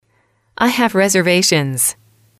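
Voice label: i have reservations, English, female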